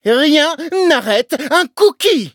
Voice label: levelup fr